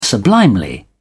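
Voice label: "sublimely", British English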